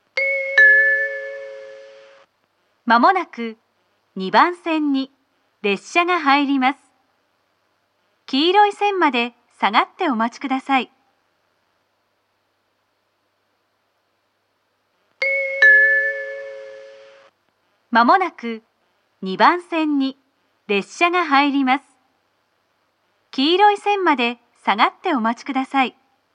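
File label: platform 3 approaching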